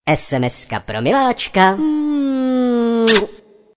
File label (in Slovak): sms hlásky cz